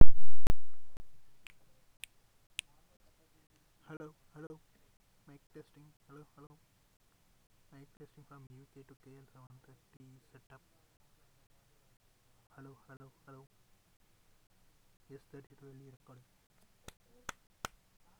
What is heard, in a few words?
clean voice; TAA5212